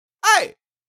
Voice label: Male vocalization shouting to get attention "heyy"